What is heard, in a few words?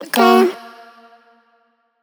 Vocal "Go"; One Shots; Ableton Live 12 Lite; Core Library